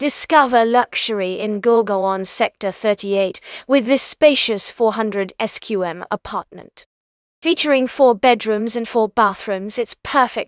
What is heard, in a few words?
narration audio